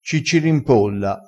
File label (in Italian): "ciccirimpolla", crespinese